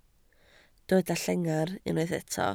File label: "llengar", Welsh